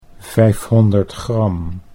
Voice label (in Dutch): "500 gram", Dutch